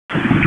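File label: Sheriff's Office Dispatch 1, p25; WSO DISP 1; wake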